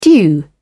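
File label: "due", British English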